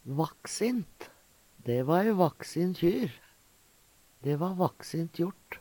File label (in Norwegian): "vaksint", Numedalsmål